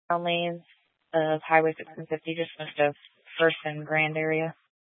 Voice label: Police Dispatch, scanner